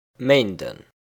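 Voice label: "Méinden", Luxembourgish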